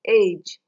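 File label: "age", Nigerian Pidgin, female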